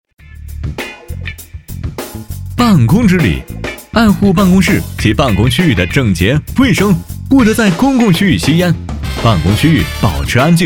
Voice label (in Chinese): 男 MG 活力 办公室